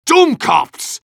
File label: medic jeers; tf2